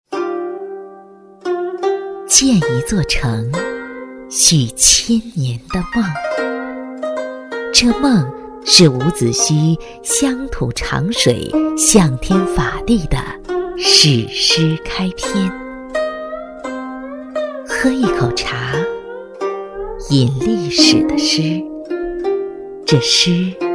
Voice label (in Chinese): 女 朗诵配音 一枕姑苏 温柔水墨感